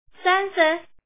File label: Woman, lord